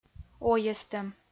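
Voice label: "ойӧстӧм", Komi, female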